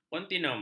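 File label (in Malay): "kontinum", Malay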